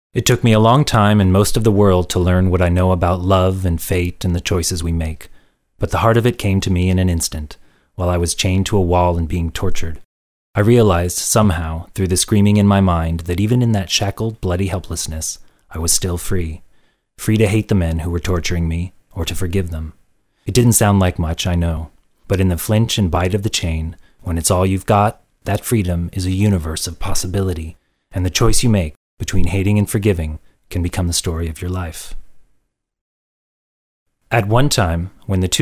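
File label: english american male voice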